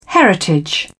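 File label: "heritage", British English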